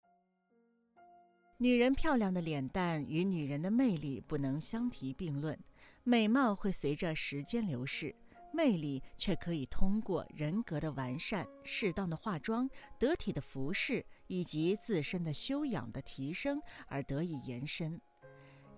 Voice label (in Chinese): 沉稳旁白